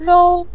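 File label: "rou", Mandarin Chinese, neutral tone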